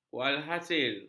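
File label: "walhasil", Malay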